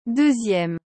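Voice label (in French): "deuxieme", French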